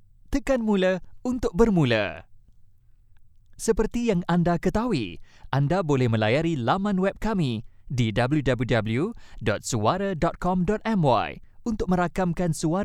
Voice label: E Learning VO

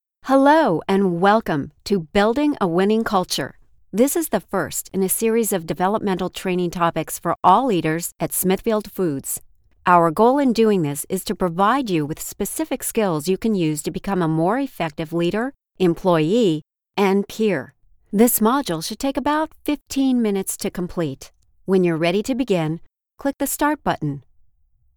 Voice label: Explainer Module

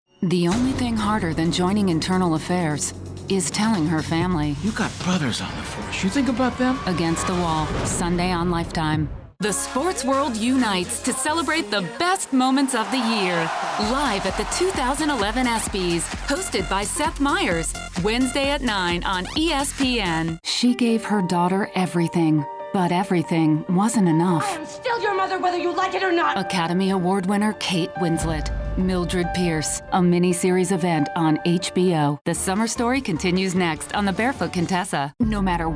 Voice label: Promo

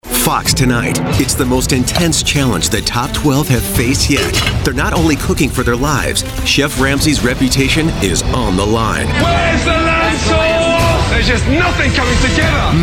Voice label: Promo

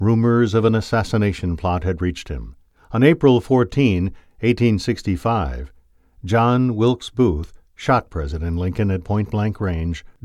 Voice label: Narration

